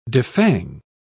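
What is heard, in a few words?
"defang", British English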